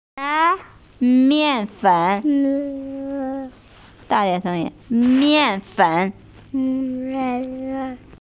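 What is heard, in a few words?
"mian4fen3", Mandarin Chinese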